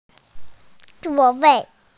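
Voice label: "seat", Mandarin Chinese, female; zuo4wei4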